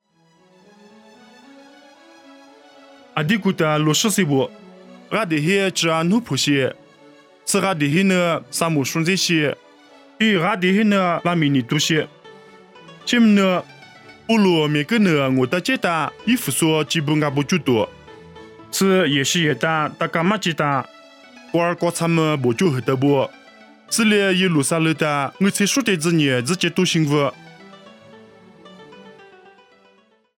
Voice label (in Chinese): 凉山彝语; 地中海米利都